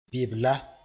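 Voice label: "bɩbla", Mooré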